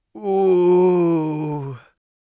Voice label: poisoned, mage voice; Mage Voice Pack

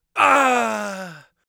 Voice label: crit, Mage Voice; Mage Voice Pack

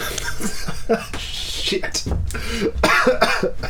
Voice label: Voice, Loses It; Micro Pack

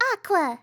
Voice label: aqua, RPG Voice; RPG Voice Starter Pack

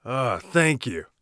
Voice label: thankful, warrior voice; Warrior Voice Pack

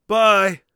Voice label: bye, Warrior Voice; Warrior Voice Pack

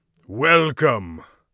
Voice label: welcome, Mage Voice; Mage Voice Pack

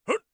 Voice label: hut, orc voice; Orc Voice Pack

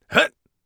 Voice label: hut, Warrior Voice; Warrior Voice Pack

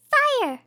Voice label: "fire", RPG Voice; RPG Voice Starter Pack

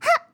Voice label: jump, RPG Voice; RPG Voice Starter Pack

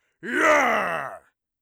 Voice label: excited, orc voice; Orc Voice Pack

